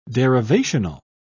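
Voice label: "derivational", English, British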